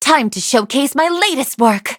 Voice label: battle en; cookie run